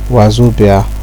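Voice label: "wazobia", Nigerian Pidgin, male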